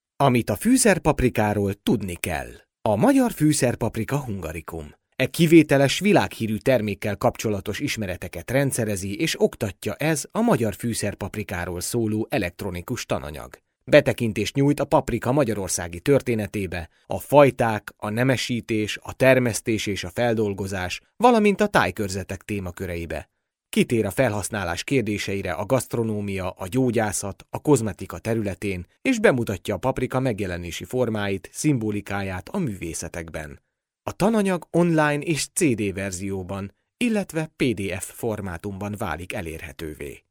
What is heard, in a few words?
Hungarian male